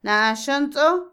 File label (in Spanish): "lo malo, el mal", Otomí, mujer; Emociones; Vocabulary; INALI